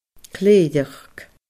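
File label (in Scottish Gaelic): "cléireachd", Scottish Gaelic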